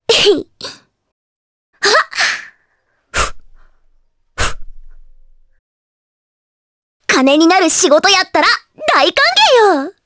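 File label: vox trs Japanese; Requina; kingsraid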